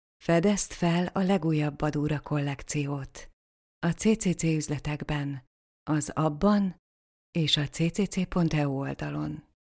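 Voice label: Hungarian, deep; GoLocalise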